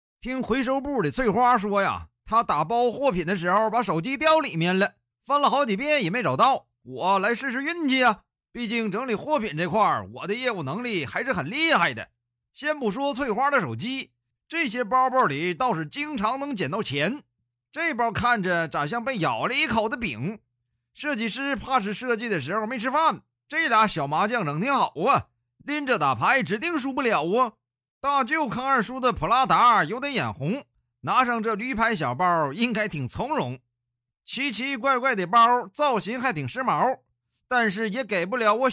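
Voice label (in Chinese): 男方言, 开箱东北方言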